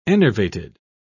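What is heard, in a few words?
"enervated", British English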